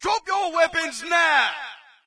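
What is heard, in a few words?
British drop guns; player; source engine; dod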